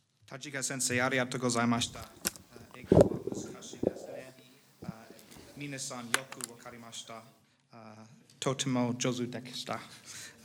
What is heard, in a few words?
Cambodian Session, khmer; iclrs symposium 2009